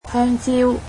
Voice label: bananas, cantonese